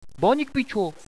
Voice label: affirmative, radio bot